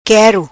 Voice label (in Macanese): Quero, Macanese